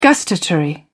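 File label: "gustatory", British English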